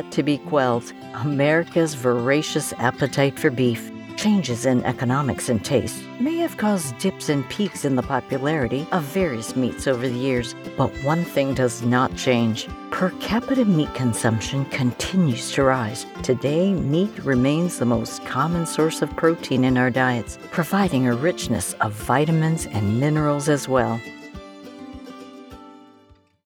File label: with music; History of Meat in the US